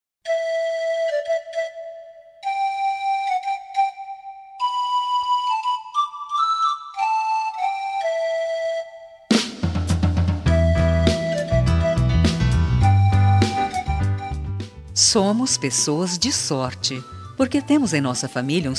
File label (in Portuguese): aniversario irma plural voz feminina; aniver irmaos